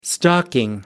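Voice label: "stalking", British English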